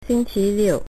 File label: "saturday", Mandarin